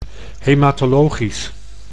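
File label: "hematologisch", Dutch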